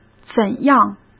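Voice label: "怎样", Mandarin Chinese; chinese-flashcards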